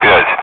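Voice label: Speech, Russian, air traffic control; Digits; DCS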